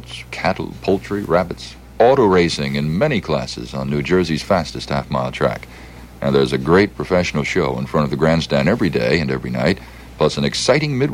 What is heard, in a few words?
Flemington Fair commercial live